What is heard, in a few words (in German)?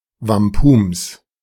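"Wampums", German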